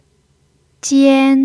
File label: "jian1", chinese